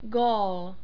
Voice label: "gol", Czech